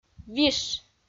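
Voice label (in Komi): "виш", Komi, female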